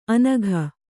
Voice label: "ಅನಘ", Kannada

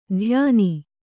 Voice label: "ಧ್ಯಾನಿ", Kannada

